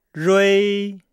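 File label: "rui", Chinese; Nihao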